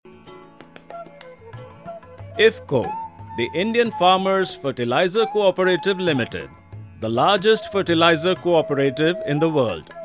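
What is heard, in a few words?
corporate english; iffco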